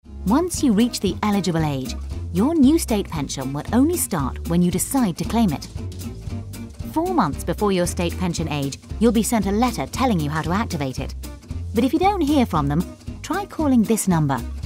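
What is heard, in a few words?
commercials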